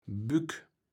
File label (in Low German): "Bük", kaevels platt